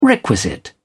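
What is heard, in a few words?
"requisite", British English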